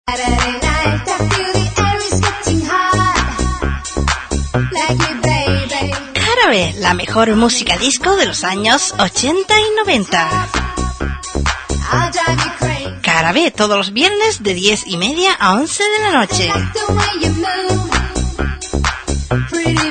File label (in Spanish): Promo programa, Radio; Radio Vegueta